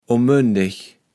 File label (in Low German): "omöndeg", kaevels platt